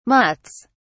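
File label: "mutts", British English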